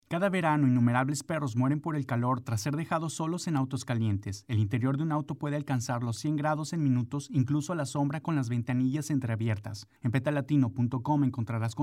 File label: perros calientes hot car radio PSA spanish